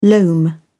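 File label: "loam", British English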